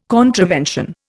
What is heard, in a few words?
"contravention", Indian